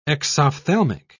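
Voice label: "exophthalmic", British English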